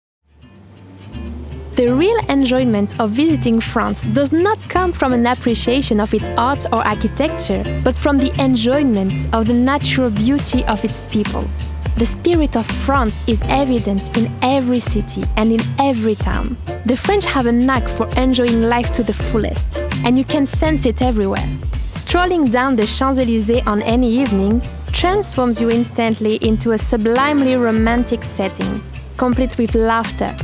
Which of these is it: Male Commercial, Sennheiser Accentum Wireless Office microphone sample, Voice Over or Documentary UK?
Documentary UK